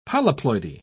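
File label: "polyploidy", British English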